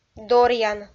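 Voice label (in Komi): "доръян", Komi, female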